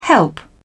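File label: "help", British English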